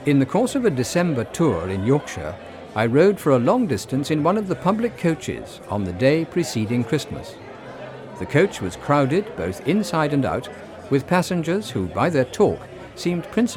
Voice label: babble 15dB